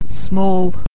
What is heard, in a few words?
"small", English